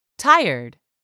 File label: "TIRED", english; 3000 words